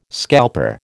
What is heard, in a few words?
"scalper", American